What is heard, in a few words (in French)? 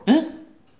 "hein" surprise